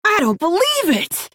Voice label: female orc wow

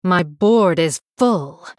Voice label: female blood elf error full minions

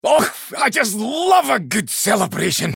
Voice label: male dwarf; mirror start

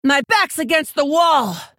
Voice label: female orc; lowcards

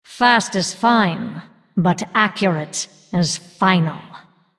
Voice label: female undead trigger spell deadly shot

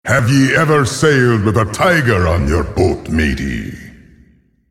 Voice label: male beast pirate day

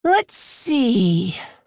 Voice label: female orc thinking

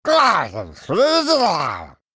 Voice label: male murloc greetings

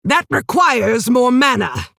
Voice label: female orc error need mana

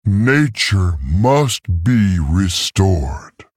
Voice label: male tauren picked

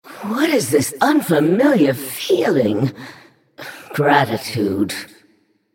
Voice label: female naga thanks

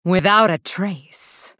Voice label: female blood elf emote

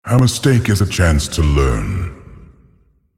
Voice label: male beast oops

